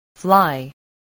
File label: "fly", spelling